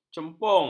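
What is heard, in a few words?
"cempung", Malay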